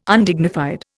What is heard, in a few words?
"Undignified", Indian